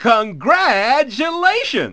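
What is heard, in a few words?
announcer, Japanese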